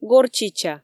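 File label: "горчича", Komi, female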